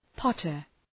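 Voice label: "potter", English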